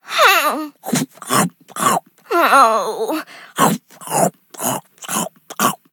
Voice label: defeat, en; Cookie Run

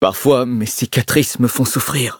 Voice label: loading, fr

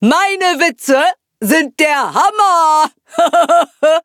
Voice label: loading, de; Cookie Run

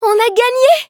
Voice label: victory, fr; cookie run

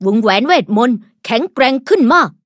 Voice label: level up, th; cookierun

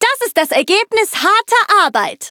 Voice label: victory, de; cookie run

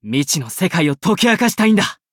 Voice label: levelup, ja; Cookie Run

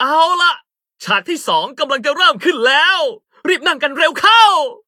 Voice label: level up, th; cookie run

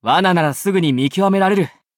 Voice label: levelup ja; Cookie Run

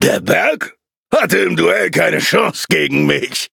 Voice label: levelup, de; cookie run

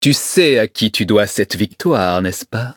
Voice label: victory, fr; Cookie Run

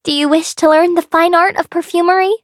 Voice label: levelup en; cookie run

